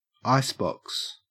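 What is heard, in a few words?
"icebox", Australian English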